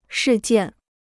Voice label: "事件", Chinese

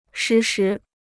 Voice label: "时时", Chinese

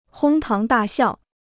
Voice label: "哄堂大笑", Chinese

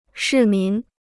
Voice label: "市民", Chinese

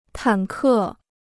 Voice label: "坦克", Chinese

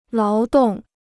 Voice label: "劳动", Chinese

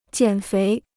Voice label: "减肥", Chinese

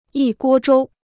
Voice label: "一锅粥", Chinese

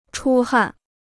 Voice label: "出汗", Chinese

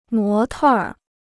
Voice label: "模特儿", Chinese

